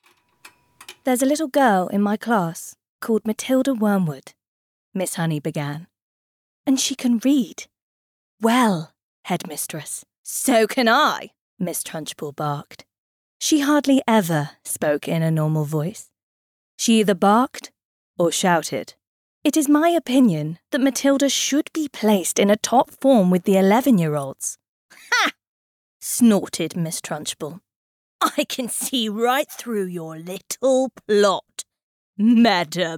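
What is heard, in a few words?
audio book, 20s-30s. Female. Welsh.; voiceover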